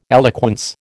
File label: "eloquence", American